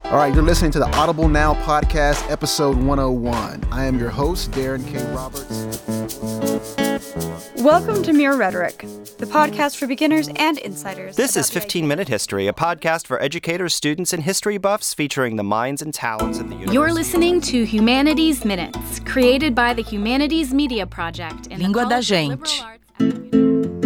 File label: Podcast Intros Collage